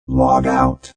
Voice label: Robot Voice "Log Out"; Robot Type 2 voice; Robot Sound Effects; Lynne Music

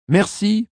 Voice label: Thank You French, Male; Talking Sound Effects

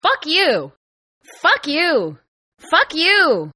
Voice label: Female English, Hispanic Female Saying; Hispanic Female Saying "F You!" In English-3 Takes; Attitude Sound Effects

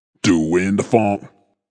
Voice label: Vocal Deep, Funk vocal loop sample.; DoinTheFunk 110bpm

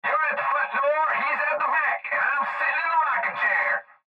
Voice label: Cb Trucker Radio; Radio Voice Sound Effects; Voice Clip Middle Age Male YouRe At The Front Door Hes At The Back And IM Sittin; Blastwave

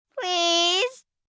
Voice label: Please, Etiquette, 1 child, 10 yrs; VoiceBox

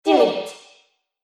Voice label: Robot Voice Delete; Robot Sound Effects; Sci-Fi Sound Effect; Andrew Fleming; Twistedtracks

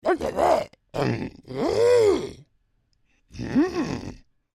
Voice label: Creature Troll Speak Intrigued, Monster; Monsters; Horror; Big Room Sound

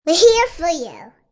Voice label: Toddler Says We Are Here For You, Toddler talks; Children Sound Effects; Human

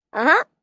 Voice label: "Uh Huh", Response, 1 child, 8 yrs.; Exclamation Sound Effects

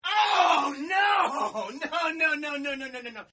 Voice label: Oh no no no Male, Exclamations; Voice

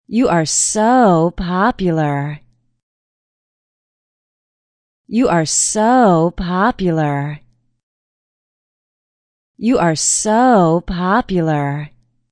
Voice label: Woman Says You're So Popular; Human Vocal Ringtone

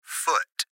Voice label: Gps Voice, Male; Laptop Speaker Adult Male; Recorded Voice

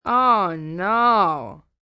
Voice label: Oh No Teen, child, boy, teenager; Kids; Exclamation; Voice Box

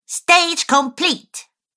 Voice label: Cartoon Girl Stage Complete, cartoon voice; Cartoon Voice Sound Effects

